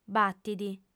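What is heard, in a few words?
"batit", female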